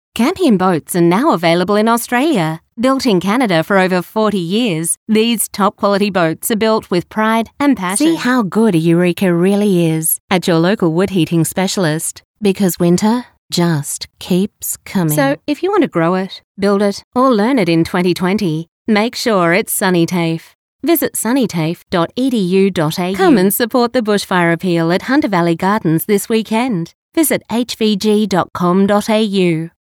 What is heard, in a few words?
Soft Sell